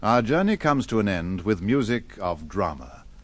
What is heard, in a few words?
journey comes to end music drama, male spoken word; MALE MISC